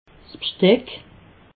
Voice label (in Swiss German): "bsteck", alsace